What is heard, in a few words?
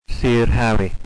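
"sirhowy", Welsh; Tredegar, Monmouthshire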